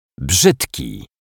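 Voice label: "brzydki", Polish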